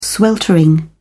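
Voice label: "sweltering", British English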